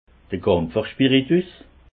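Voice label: "kampferspiritus", Alsatian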